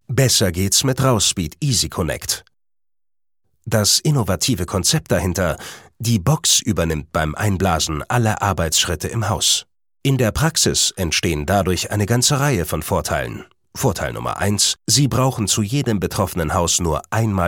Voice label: Imagefilm, maenner; Rauspeed; EasyConnect

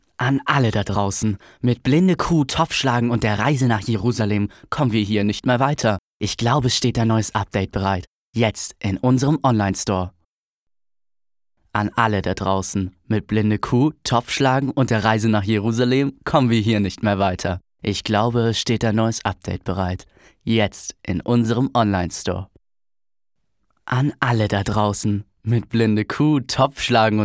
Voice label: jungen

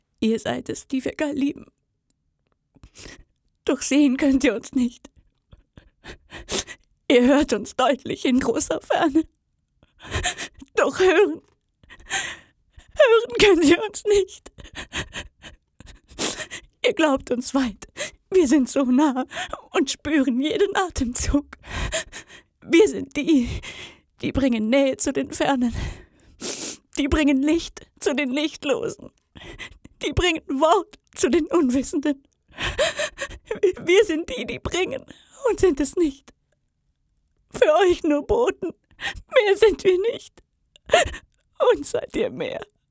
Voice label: traurig, frauen

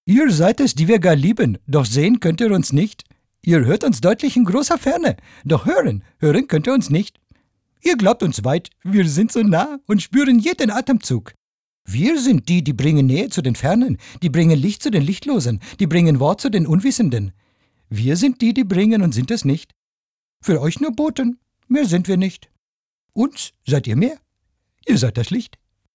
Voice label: Akzent indisch, maenner; Apu

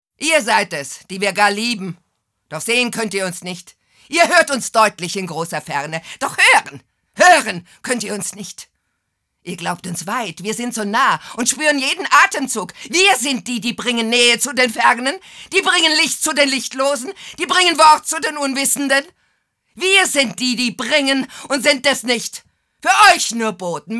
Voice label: aggressiv, frauen

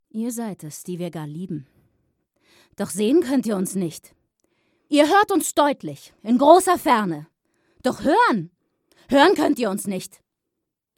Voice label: aggressiv

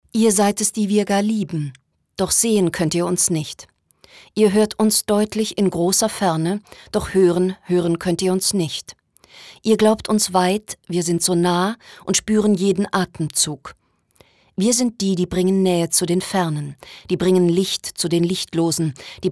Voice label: sachlich, frauen

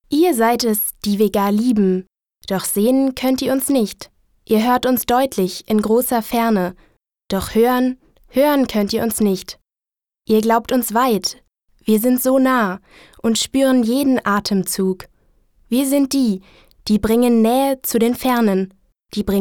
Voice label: sachlich, frauen

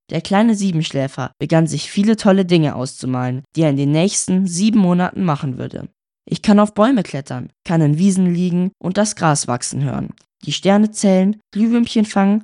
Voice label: jungen; Siebenschlaefer

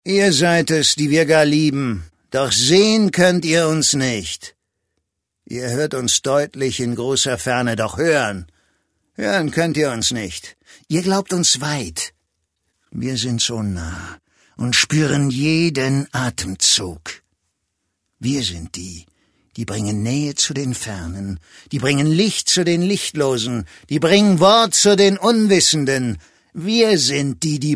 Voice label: aggressiv, maenner

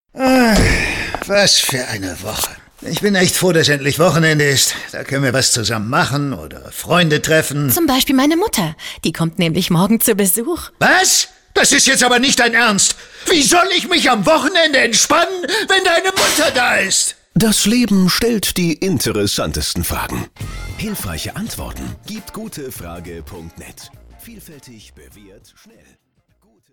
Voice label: Spots SZENE, maenner; Gutefrage net

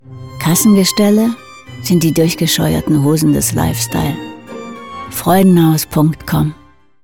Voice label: Funkspot, frauen; Freudenhaus

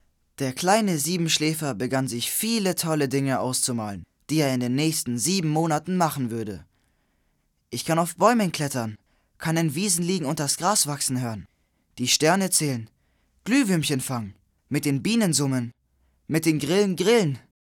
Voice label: Jungen; Siebenschläfer

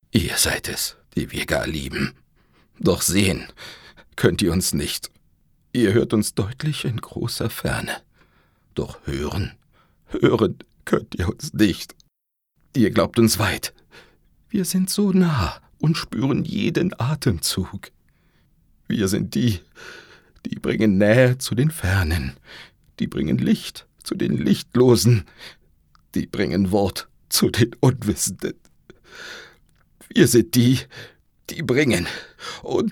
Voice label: traurig

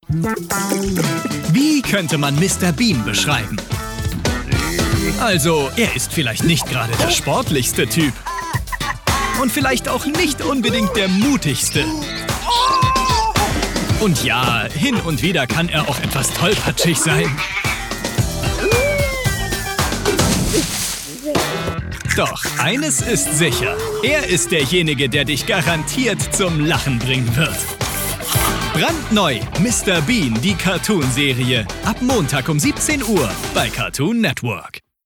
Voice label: Trailer, maenner; Warner; MrBean

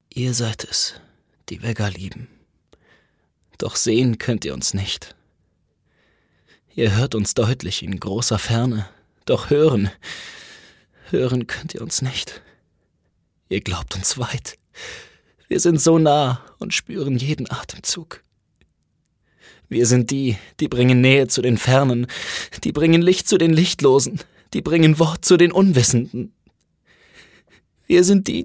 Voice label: traurig, maenner

